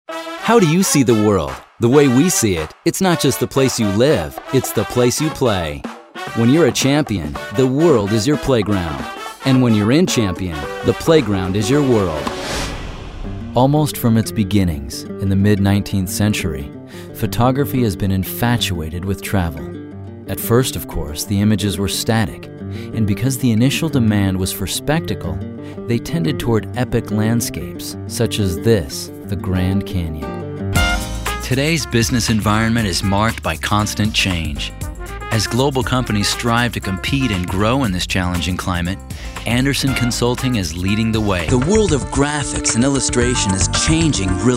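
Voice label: narration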